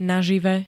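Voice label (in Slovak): "nažive", Slovak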